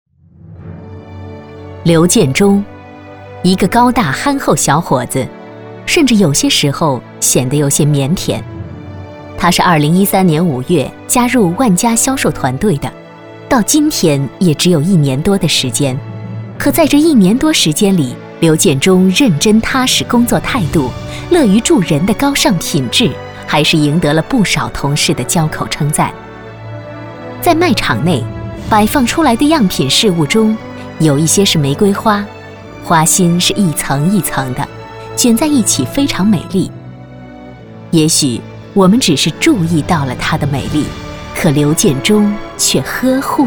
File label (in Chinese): 女, 专题, 人物感动事迹, 亲切自然